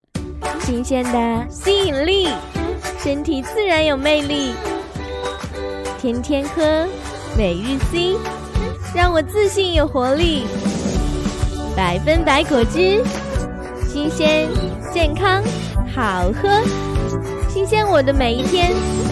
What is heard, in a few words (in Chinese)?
商配音展活力